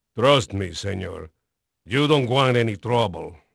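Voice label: Spanish male, voice; Pirates Of The Caribbean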